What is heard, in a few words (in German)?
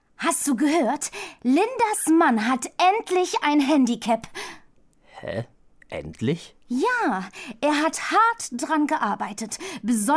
werbung, deutsch frauen